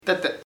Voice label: rich, chamacoco; rico